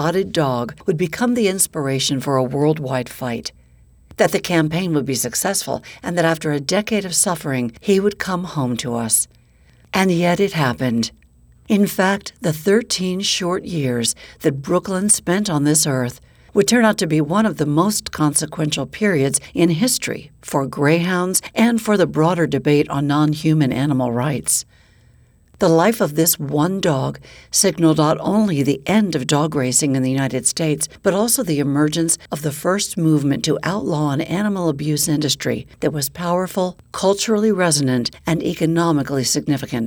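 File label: Non Fiction Social Justice, Audiobook Narration; The Magic Strings of Frankie Presto / Non-Fiction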